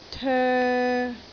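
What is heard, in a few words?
"toe3", Cantonese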